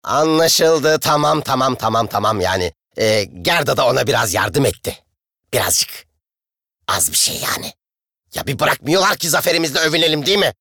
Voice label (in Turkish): ANIMASYON